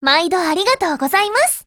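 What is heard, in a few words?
PHRASE Japanese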